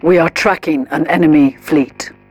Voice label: advisor notification hostile fleet detected, militarist; Stellaris; ethics